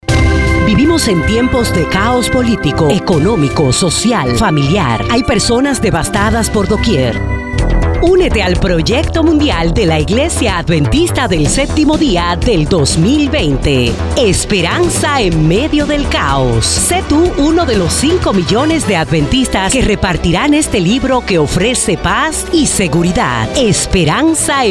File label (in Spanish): Cuña radial